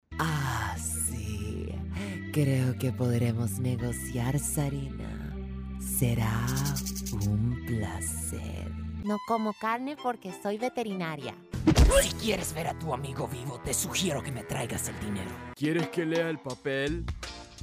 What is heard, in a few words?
Spanish Animation